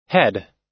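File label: "head", US English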